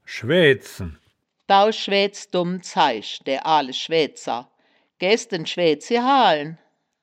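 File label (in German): "schwaeaed sen", wittlicher woerterbuch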